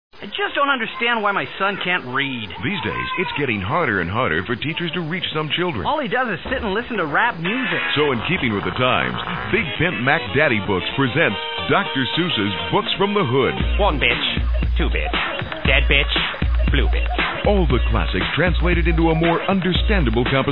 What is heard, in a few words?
Commercials rapseuss